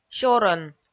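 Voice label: "сёрӧн", Komi, female; kpv audio dict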